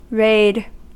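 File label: "raid", US English; pronunciations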